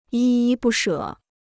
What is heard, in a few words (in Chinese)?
"依依不舍", Chinese